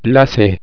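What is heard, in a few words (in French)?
"lacet", French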